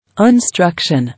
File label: "Instruction", English